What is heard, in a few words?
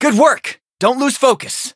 eng winning; ice pop